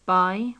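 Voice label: "buy", British English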